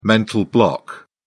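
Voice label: "mental block", British English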